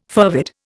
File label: "fervid", Indian